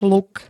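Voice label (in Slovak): "luk", Slovenské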